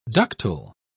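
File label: "ductule", British English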